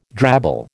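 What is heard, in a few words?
"drabble", American English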